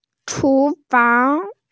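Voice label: "chu fang", Mandarin Chinese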